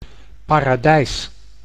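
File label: "paradijs", Dutch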